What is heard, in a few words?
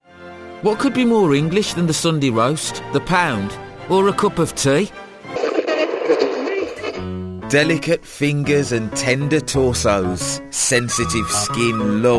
Commercials; regional accents